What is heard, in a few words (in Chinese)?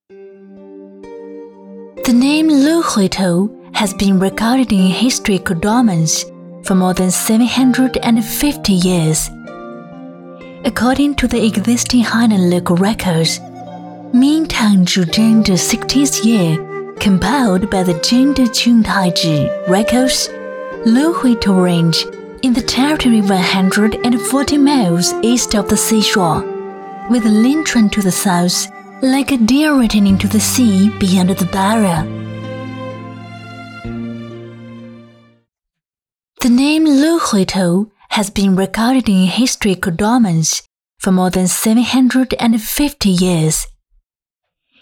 女 英文纪录片